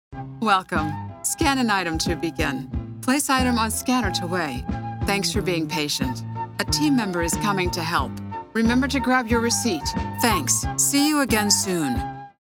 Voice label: Supermarket mix stereo